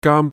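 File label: "gaam1", Cantonese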